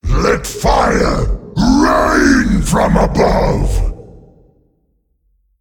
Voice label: Male Demon; Big Anetheron; Cariel Inferno